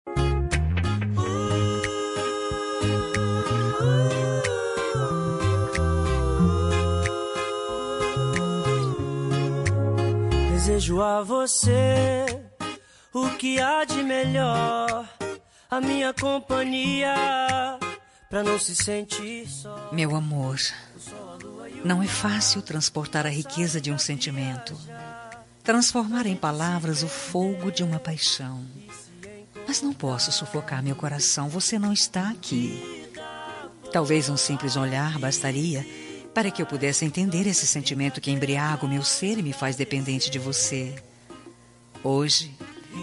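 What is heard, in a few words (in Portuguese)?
aniversário romântica distante female; Telemensagem Aniversário Romântico – Distante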